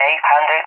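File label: "800", English; Numbers; DCS; Allied Flight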